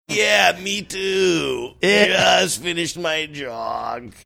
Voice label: saying yeah me too just finished my jog funny